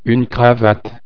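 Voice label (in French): "cravate", French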